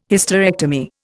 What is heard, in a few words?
"Hysterectomy", Indian